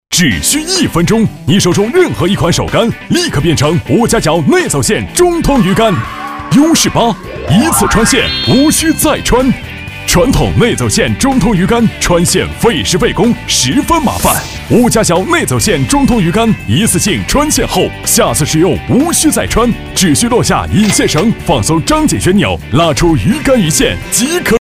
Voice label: 广告, 电购; 中通鱼竿直销

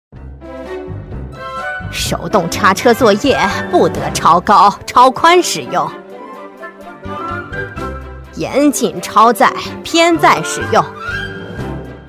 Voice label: 动画 老人 老年慈祥; 安全婆婆

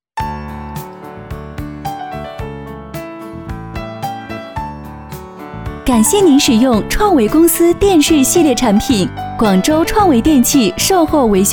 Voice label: 彩铃; 创维

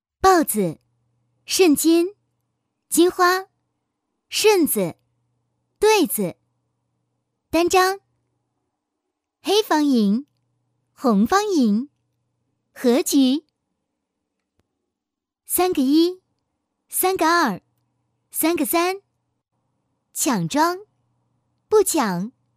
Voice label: 动画 游戏 普通话版棋牌温柔版, 女

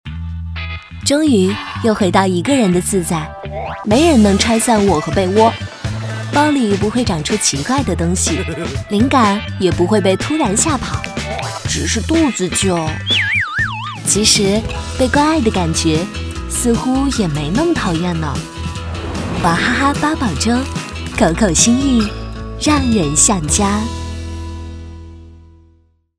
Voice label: 广告 宝粥 可爱; 品牌形象; 女; 娃哈哈

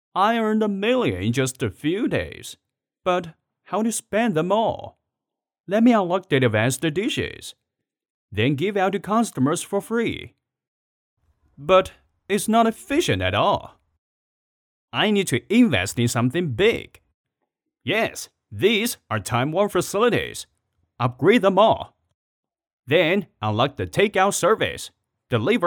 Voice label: 男英 动画 角色 搞怪角色男声; 外语; 英语

